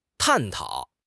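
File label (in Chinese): "探讨", Chinese; HSK6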